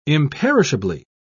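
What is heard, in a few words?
"imperishably", British English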